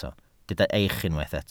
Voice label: "eich", Welsh